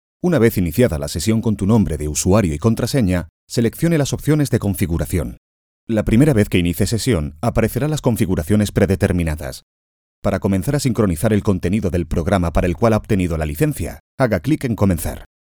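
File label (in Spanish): e-learning, Spain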